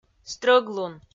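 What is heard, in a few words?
"стрӧглун", Komi, female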